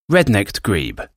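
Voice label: "red necked grebe", English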